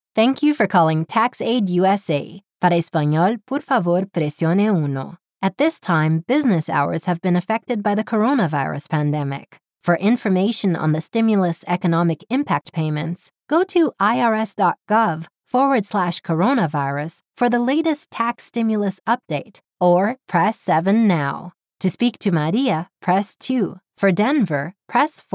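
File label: American English, female, IVR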